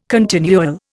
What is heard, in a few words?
"continual", Indian